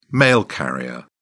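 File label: "mail carrier", British English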